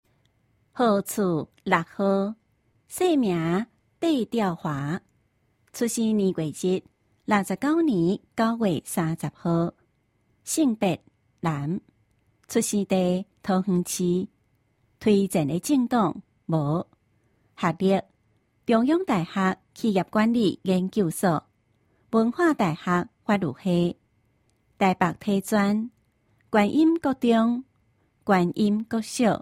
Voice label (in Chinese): 台語; 有聲公報; 市議員